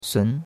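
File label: "sun2", Mandarin Chinese; Cours-de-Chinois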